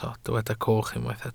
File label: "coch", Welsh